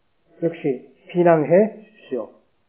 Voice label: korean